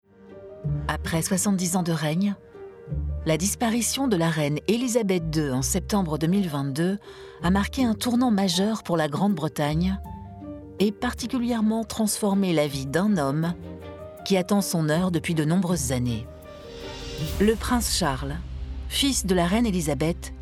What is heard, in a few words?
female french, documentary; king charles iii a road to the throne